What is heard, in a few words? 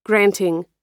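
"granting", American English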